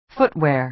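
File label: "footwear" American English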